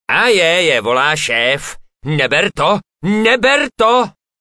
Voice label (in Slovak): zvonenie hlásky cz